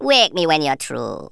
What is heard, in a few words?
"boring", Rasta